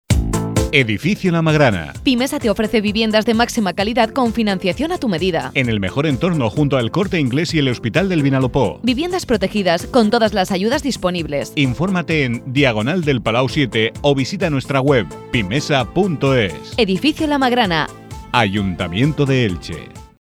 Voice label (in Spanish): cuña radio; magrana